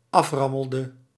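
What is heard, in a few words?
"aframmelde", Dutch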